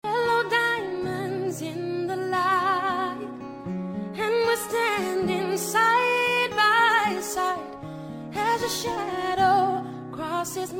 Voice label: dia dos namorados distante, voz masculina

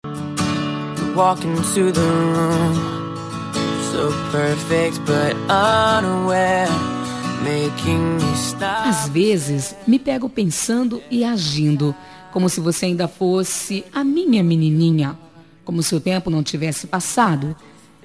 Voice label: voz feminina; Aniversário de Sobrinha

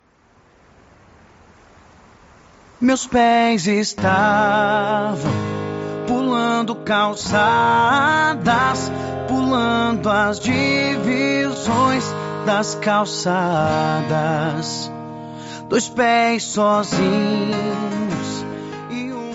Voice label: Voz Masculina; Telemensagem de Conquista

